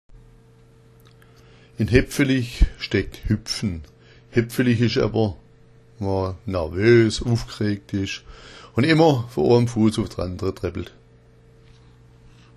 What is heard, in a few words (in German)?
hepfelich, schwaebisch